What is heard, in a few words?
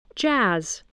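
"jazz", English word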